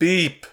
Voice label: Voices urgent; The Looker